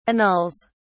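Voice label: "annuls", British English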